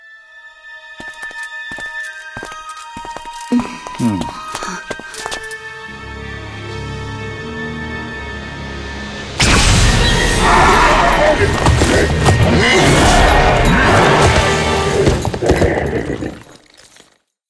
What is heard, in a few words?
cinematic voice, ru; lineage2